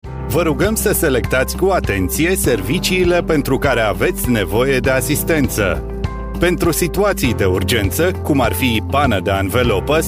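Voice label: romanian voice ivr, Smooth Velvety Romanian Male Voice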